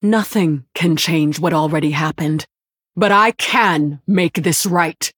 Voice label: Female Human Bark; Cariel Roame